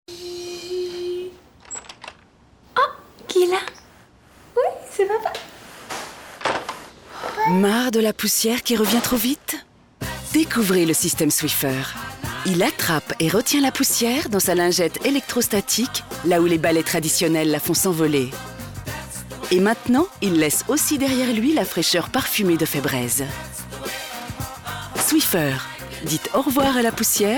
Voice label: Energique; Swiffer

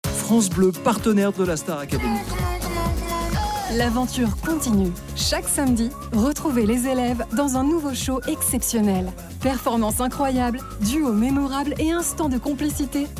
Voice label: bande annonce habillage; STAR ACADEMY; PRIME; Nikos Aliagas

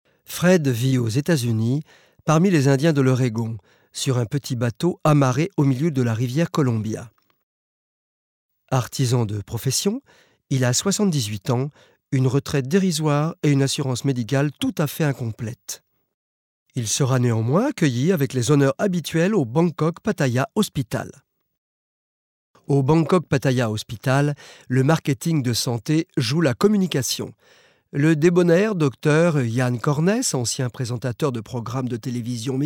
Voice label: documentaire; tourisme hospitalier

